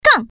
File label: "gang", woman; fujian